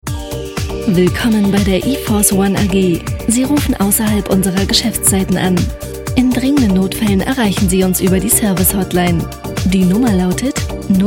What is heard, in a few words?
german